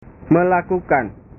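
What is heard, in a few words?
"melakukan", Indonesian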